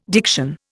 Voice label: "diction", Indian

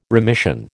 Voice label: "remission", American

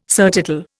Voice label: "surtitle", Indian

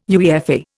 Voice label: "UEFA", Indian

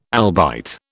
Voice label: "albite", British

